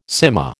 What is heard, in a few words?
"cyma", American